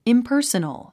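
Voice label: "impersonal", English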